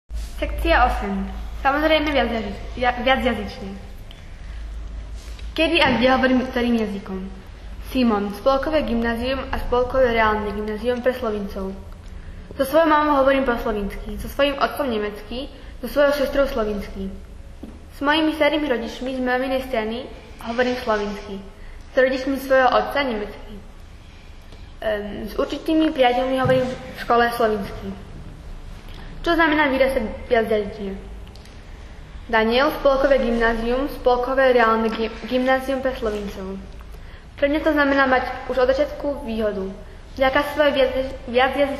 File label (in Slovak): slovensky; Station 8 selbstverstaendlich mehrsprachig